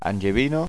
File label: "angevina", Occitan